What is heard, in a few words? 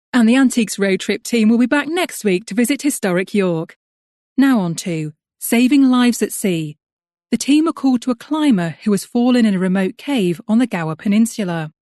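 English, female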